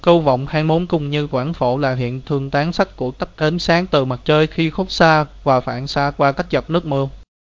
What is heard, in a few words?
speaker, vi VN; piper voices; vivos